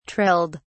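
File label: "trilled", British English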